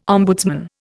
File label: "ombudsman", Indian